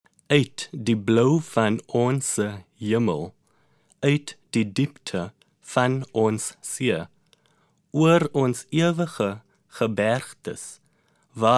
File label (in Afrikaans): afrikaans lyrics pronunciation